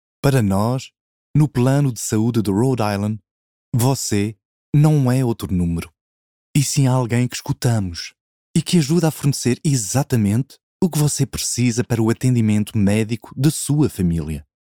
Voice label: Portuguese Advertising